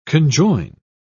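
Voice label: "conjoin", British English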